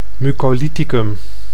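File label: "mucolyticum", Dutch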